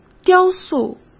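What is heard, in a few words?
"雕塑", Mandarin Chinese; hsk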